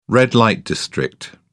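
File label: "red-light district", British English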